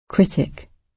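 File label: "critic", British English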